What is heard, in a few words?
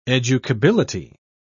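"educability", British English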